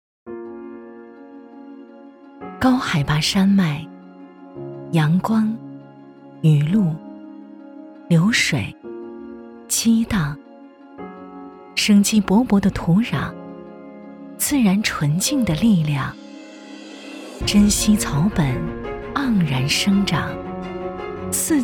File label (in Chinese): 女 宣传片 大气 鼓舞人心 热情